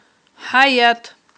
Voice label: "хIаят", aghul